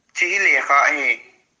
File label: It is a book., Kuki